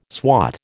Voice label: "swat", American